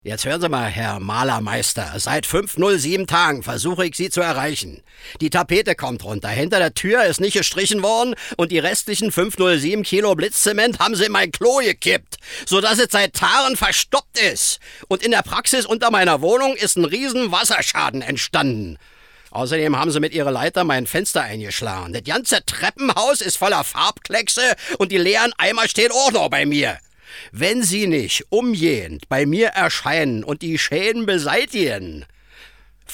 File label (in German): Dialekt Berlinerisch